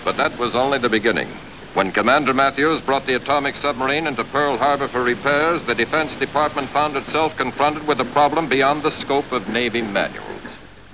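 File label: narration; Narrator: "But That Was Just The Beginning..."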